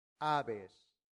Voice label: "habes", latin; santillana

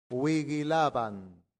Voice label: "vigilabant", latin; santillana

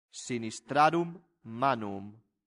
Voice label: "sinistrarum manuum", latin; santillana

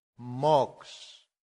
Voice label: "mox", Latin; santillana

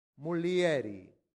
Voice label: "mulieri", latin; santillana

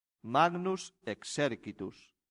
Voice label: "magnus Exercitus", Latin; santillana

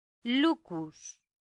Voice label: "lucus", latin; santillana

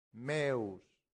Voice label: "meus", Latin; santillana

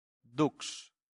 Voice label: "dux", latin; santillana

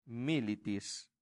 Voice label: "militis", latin; santillana

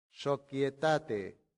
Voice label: "societate", latin; santillana

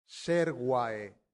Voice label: "servae", Latin; santillana

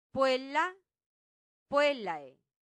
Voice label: "puella puellae", latin; santillana

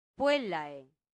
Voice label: "puellae", latin; santillana

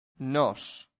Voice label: "nos", Latin; santillana

